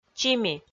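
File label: "чими", Komi-Zyrian, female; kpv audio dict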